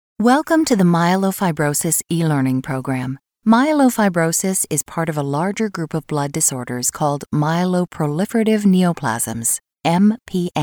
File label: Medical Narration eLearning